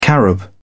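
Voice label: "carob", British English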